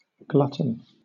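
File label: "glutton", British English; pronunciations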